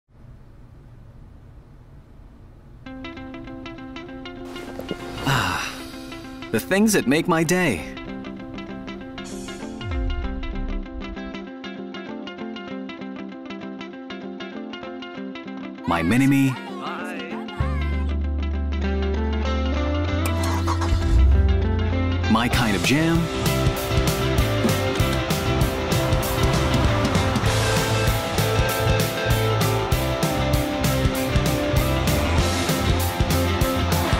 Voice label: English (Casual, Conversational)